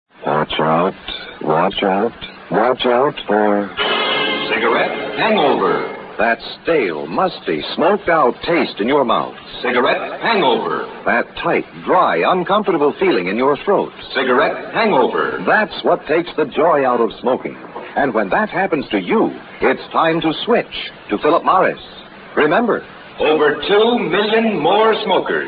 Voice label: Vintage Commercials; Philip Morris Cigarettes